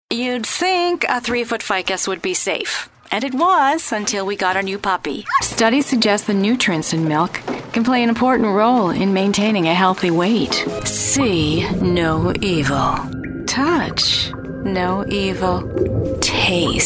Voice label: Commercial